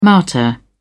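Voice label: "martyr", British English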